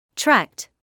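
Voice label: "tract", British English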